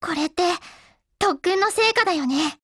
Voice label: WIN JP